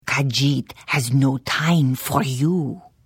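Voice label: Hello, Khajiit female; The Elder Scrolls III - Morrowind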